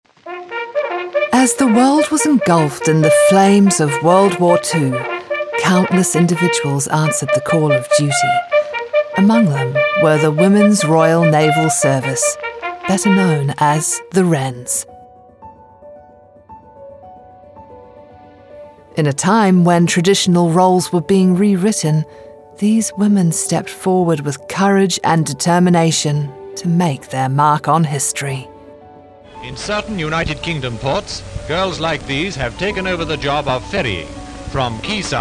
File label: english new zealand documentaries